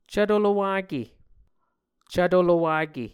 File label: "cvto lowake", muscogee